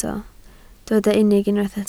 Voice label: "unig", Welsh